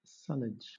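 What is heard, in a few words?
"sullage", British English; pronunciations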